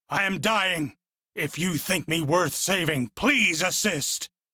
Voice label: Crew Need Revive, Male; Dialog; en; Warframe; Railjack Crew; Arbiters Hexis